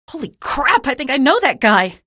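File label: citizen alert zombie, female; vo; npc; source engine; episodic; episode 1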